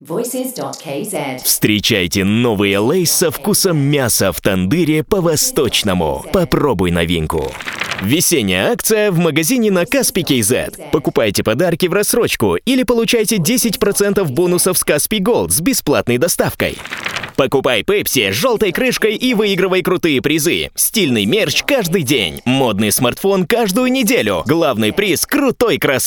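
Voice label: Russian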